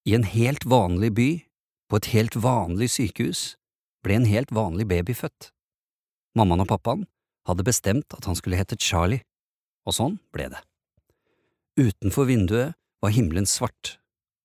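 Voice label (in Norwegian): forteller, Norwegian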